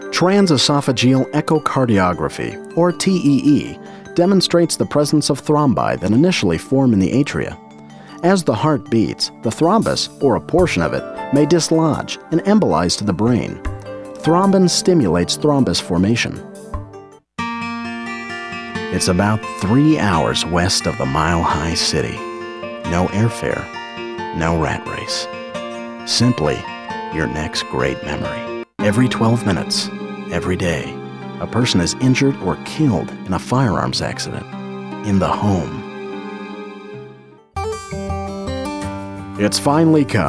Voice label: Industrial Narration